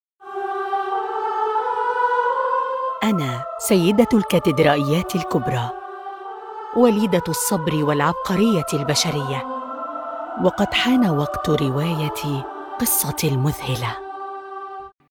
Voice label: arabic narration